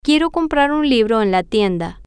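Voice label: Spanish, female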